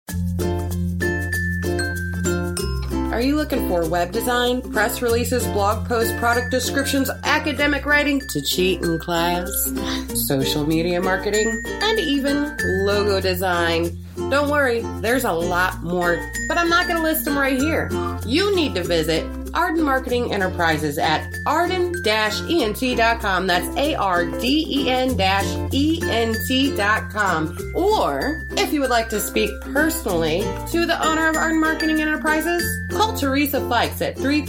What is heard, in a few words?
commercial